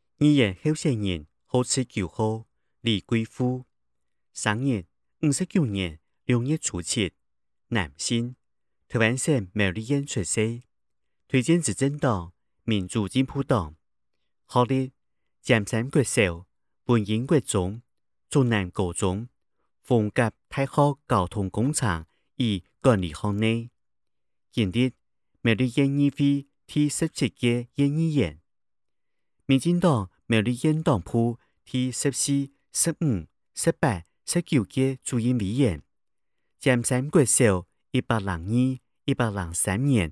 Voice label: 客語; 苗栗縣; 有聲公報; 縣議員; 第5選舉區

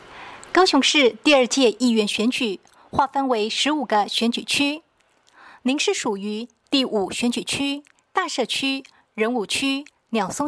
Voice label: 國語; 第五選區

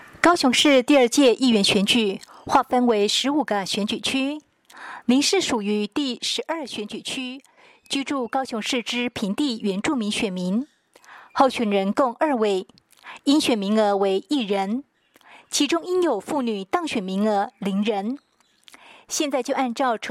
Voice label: 國語; 高雄市; 高雄市市長議員有聲選舉公報; 第十二選區

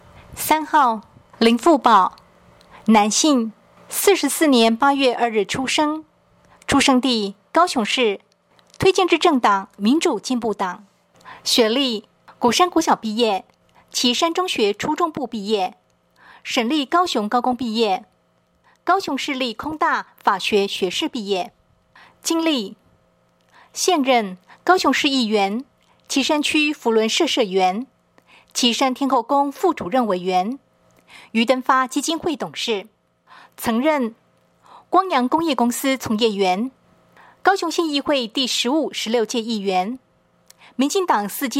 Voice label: 國語, 有聲選舉公報; 第一選區

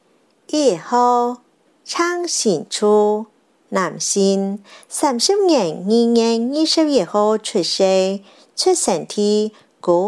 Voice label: 客語; 高雄市; 高雄市市長議員有聲選舉公報; 第七選區

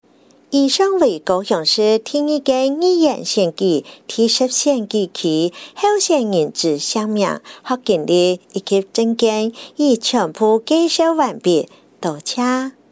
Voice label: 客語; 高雄市; 高雄市市長議員有聲選舉公報; 第十選區

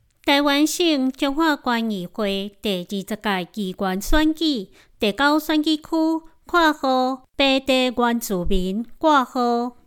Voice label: 前言 國語; 彰化縣; 有聲公報; 縣議員; 臺語 第九選舉區

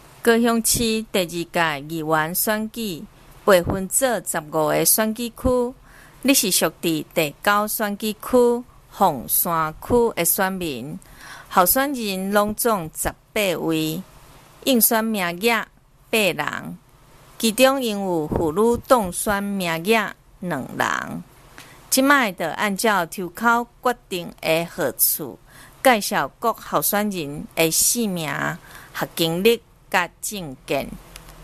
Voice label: 台語, 前言, 有聲選舉公報; 高雄市; 高雄市市長議員有聲選舉公報; 第九選區

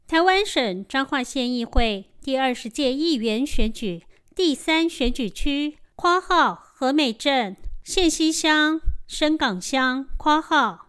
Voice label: 前言 國語; 彰化縣; 縣議員; 第三選舉區; 有聲公報

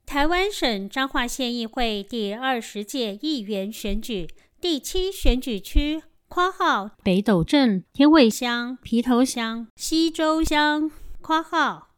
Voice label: 前言 國語; 彰化縣; 有聲公報; 縣議員; 第七選舉區

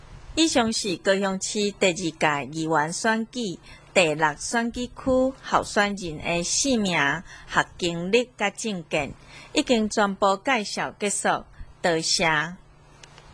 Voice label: 台語; 第六選區

